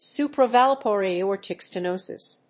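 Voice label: "Supravalvular Aortic Stenosis", English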